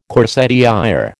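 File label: "corsetiÊre", American English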